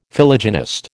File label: "Philogynist", American